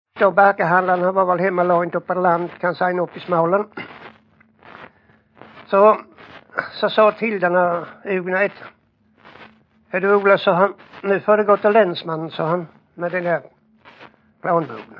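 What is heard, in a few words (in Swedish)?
Jämshög Blekinge, dialekter, Svenska sagor; Folkminnen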